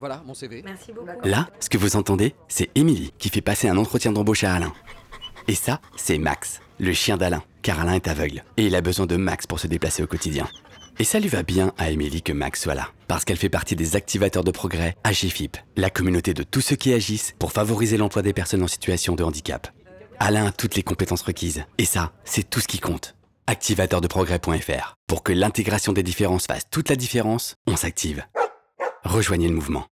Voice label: Spot Radio; Agefiph